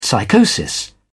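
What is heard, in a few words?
"psychosis", British English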